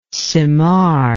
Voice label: "cymar", english